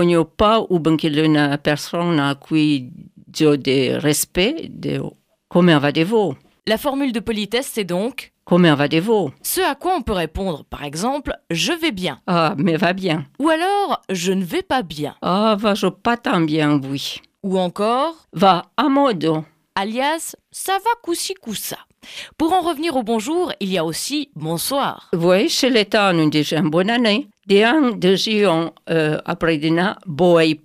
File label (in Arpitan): dire en patois